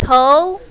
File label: "tou2", mandarin, female